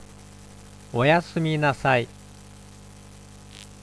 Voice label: "o-yasumi nasai", Japanese; aisatsu